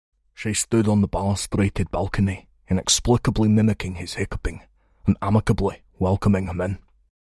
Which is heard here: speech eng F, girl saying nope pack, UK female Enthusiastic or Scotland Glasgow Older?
Scotland Glasgow Older